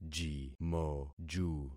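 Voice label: "jimoju", concatenated syllables; Affixing experiment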